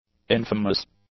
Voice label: "infamous", English; adjectives